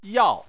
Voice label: "yao4", Chinese